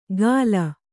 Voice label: "ಗಾಲ", Kannada